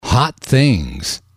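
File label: hot things, English, male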